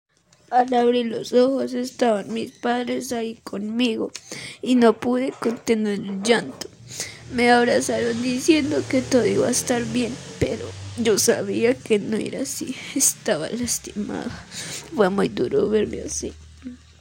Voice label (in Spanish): Adolescente; casting trineo tv